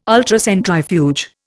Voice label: "Ultracentrifuge", Indian

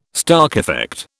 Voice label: "Stark effect", British

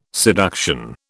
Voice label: "seduction", British

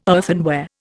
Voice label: "earthenware", Indian

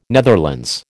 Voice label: "Netherlands", American

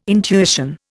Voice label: "intuition", Indian

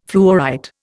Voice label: "fluorite", Indian

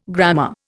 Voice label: "grammar", Indian

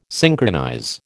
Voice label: "synchronize", American

